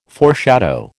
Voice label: "foreshadow", American

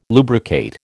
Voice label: "lubricate", American

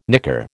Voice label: "nicker", American

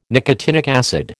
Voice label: "nicotinic acid", American

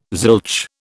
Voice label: "zilch", British

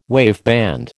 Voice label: "waveband", American

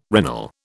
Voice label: "regnal", British

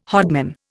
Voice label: "hodman", Indian

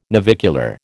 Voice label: "navicular", American

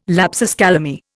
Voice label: "lapsus calami", Indian

